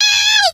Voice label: "help", scientist female

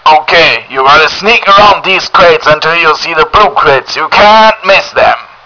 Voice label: radio; murica

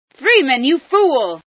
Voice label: fool, female

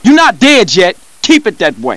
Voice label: Cheer; CarlJohnson

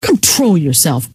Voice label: control yourself, scientist female

